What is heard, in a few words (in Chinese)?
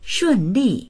"shun4li4", voices; shùnlì; 顺利; 順調である